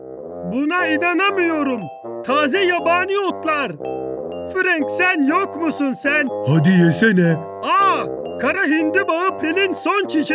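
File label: Turkish, male, animation; voice-over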